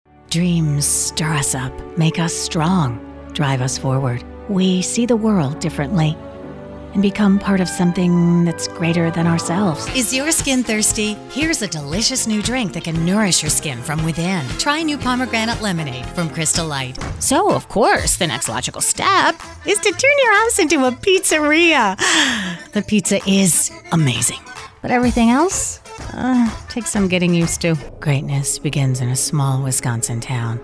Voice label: COMMERCIAL